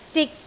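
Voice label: "zik3", Cantonese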